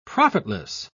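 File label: "profitless", British English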